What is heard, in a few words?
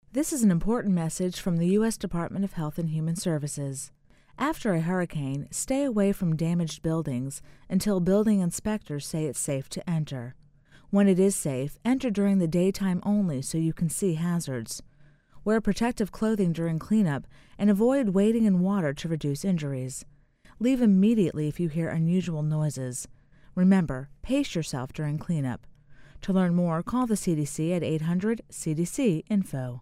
disaster staying safe damaged buildings, female, psa